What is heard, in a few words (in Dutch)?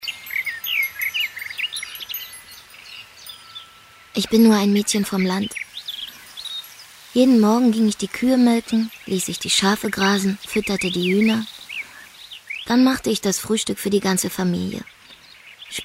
ik zeeuws meisje duits